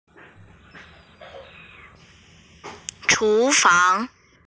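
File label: "chu fang" Chinese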